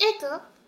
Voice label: doubt, vocal; Techmino